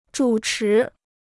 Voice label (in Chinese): "主持", Chinese